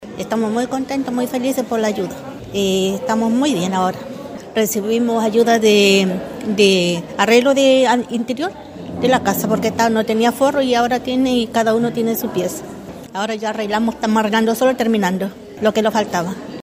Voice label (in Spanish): CUÑA; TALLER